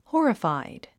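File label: "horrified", English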